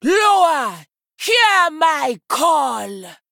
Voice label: Female Troll Play

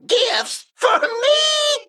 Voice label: Male Mech Emote TRIGGER Jackpot

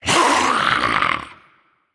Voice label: Female Naga Death

